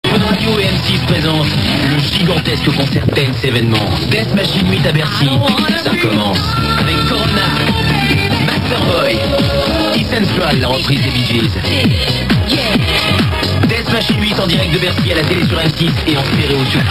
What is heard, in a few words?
promo